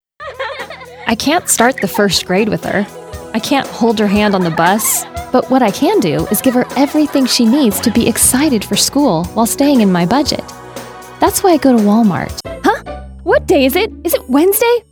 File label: Commercial